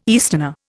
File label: "easterner", Indian